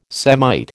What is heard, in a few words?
"Semite", American